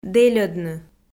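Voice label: "дэльӧдны", Komi, female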